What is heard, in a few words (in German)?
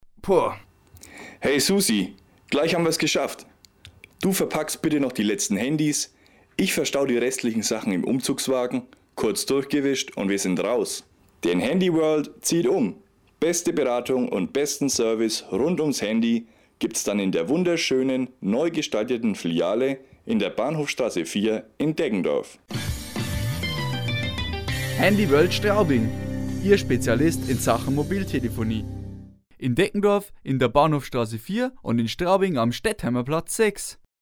Werbung; Handyworld